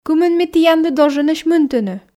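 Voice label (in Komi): кымын ми тіянлы долженӧсь мынтыны, Komi, female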